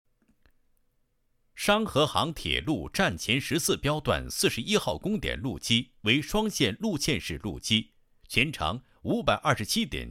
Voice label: 男 项目 配音; 商合杭铁路站前十四标段41号工点

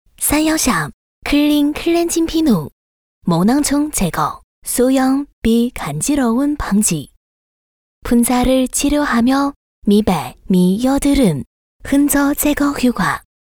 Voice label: 韩语

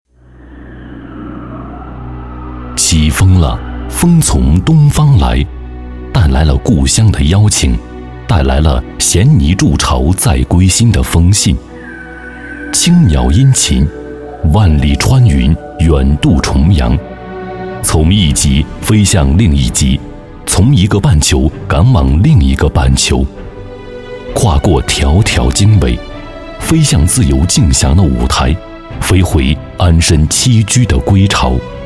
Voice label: 男 专题 科技园; 中华科技园

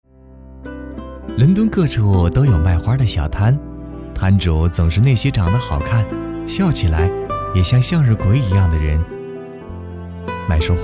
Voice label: 国语男声配音 温婉 磁性; 12年奥运会腾讯网记录片品蔚英伦

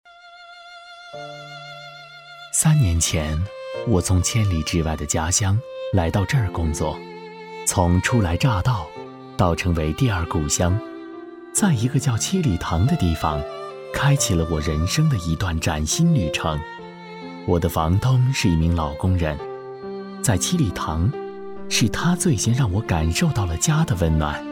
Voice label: 男 人物自述 自然诉说

